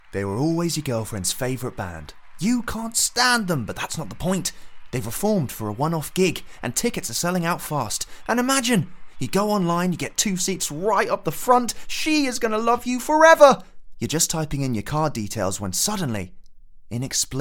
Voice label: Commercials Uk accent